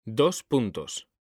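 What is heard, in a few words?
"DOS PUNTOS", Spanish; mekanta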